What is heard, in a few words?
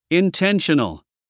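"intentional", English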